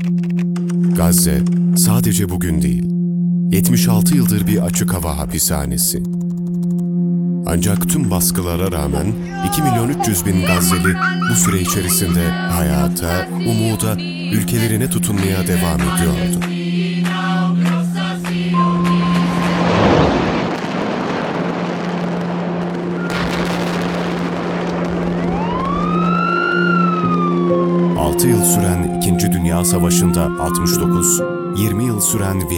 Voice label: voiceover; iletisim bsk gazze